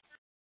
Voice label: Tempe PD, scanner; Rgnl Wireless Co-op (RWC)

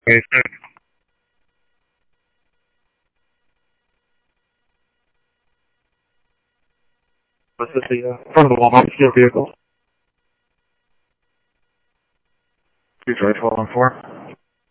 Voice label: Simulcast Police Dep, scanner audio trunk; Mesa Simulcast; MESA PD Superstn; MesaPoliceDep

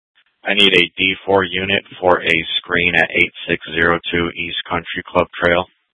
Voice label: Dispatch; Scottsdale

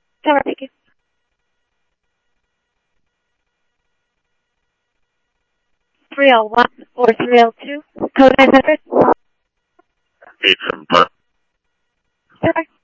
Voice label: Police, scanner

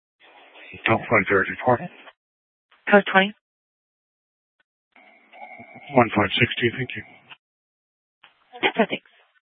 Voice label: Sheriff, scanner P25

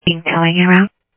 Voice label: Sheriff, scanner audio

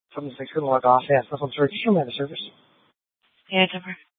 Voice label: Dispatch, Mesa PD

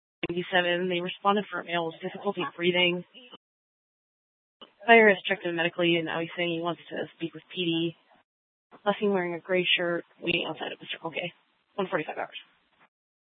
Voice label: PD Dispatch

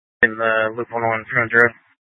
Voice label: Scottsdale Police, Simulcast

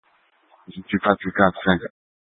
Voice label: Fire Departments Mesa Simulcast; TOPAZ

